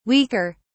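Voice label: "weaker", British English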